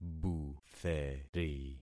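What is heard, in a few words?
"butheri", concatenated syllables; Affixing experiment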